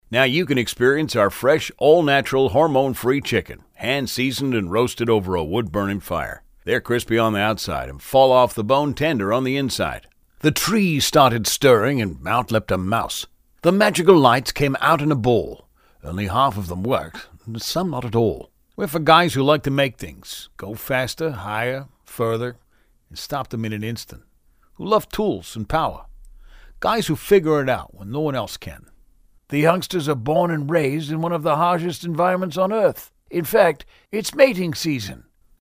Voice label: assorted dialects

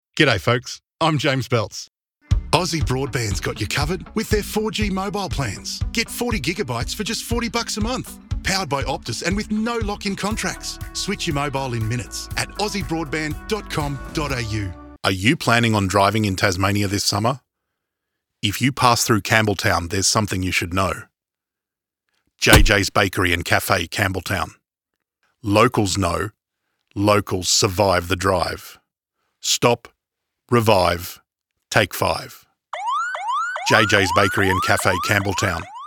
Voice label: VO COMMERCIAL

